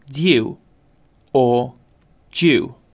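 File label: "dew", British English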